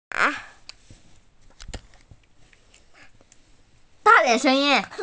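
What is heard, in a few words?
"ma3", Mandarin Chinese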